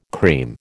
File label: "cream", American